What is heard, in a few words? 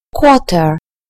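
"quarter", British English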